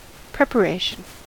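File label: "preparation", American English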